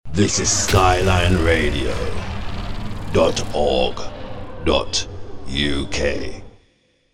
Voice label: Radio Ident; DUKE Skyline; ReVamp